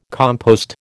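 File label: "compost", American English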